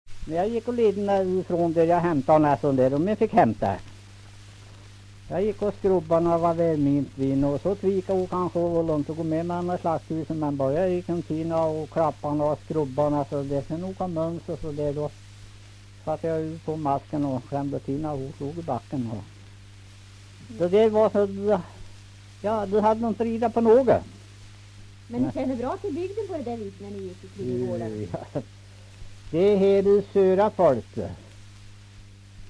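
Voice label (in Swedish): Kräklinge Närke, Svenska sagor, dialekter; Folkminnen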